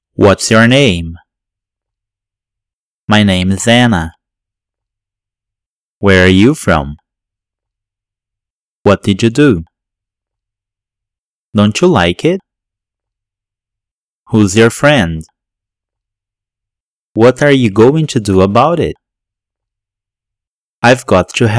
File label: Connected Speech